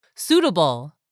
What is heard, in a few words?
"SUITABLE", english; 3000 words